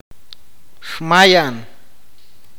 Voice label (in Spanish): ver, awakateko